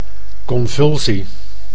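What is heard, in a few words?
"convulsie", Dutch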